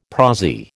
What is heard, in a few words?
"prosy", American